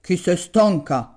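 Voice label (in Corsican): "stanca chì sè stanca", Gravona (centre); puisque tu es fatiguée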